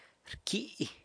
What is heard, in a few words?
"rcyi", San Lucas Quiaviní